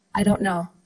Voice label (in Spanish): Voice Acting es